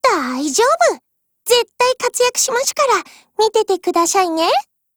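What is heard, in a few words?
FORMATION, Japanese; MG42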